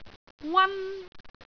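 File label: "wan1", Cantonese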